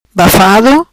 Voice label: "Bafado", Macanese; Abafado; Stifling